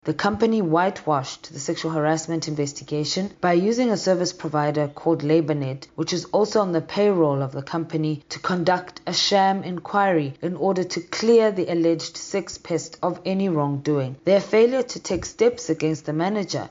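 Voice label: English; Picket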